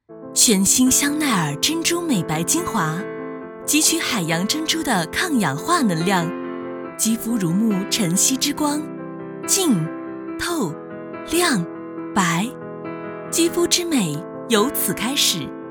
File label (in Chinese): 广告奢侈品高端; 香奈儿